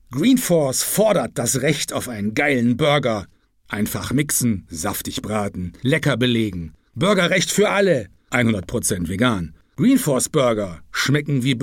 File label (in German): Werbung; Greenforce